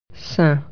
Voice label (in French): "saint", French